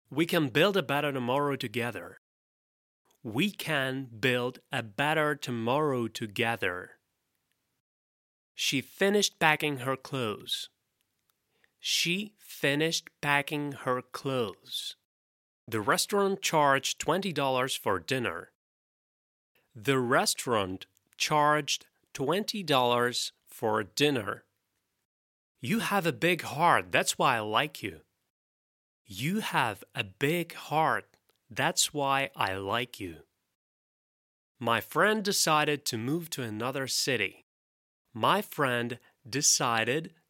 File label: ENG